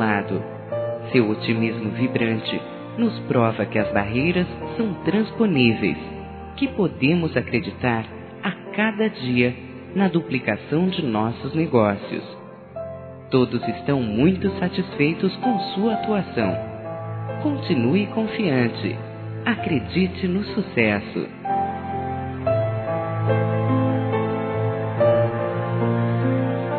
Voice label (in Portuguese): REPRESENT.COMERCIAL-F; Telemensagens; Empresarial; fem; Telemensagens 2017